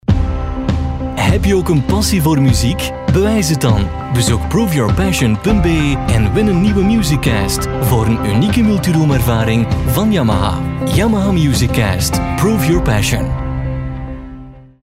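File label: Belgian commercial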